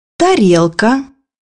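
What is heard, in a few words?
"plate", Russian; bukvar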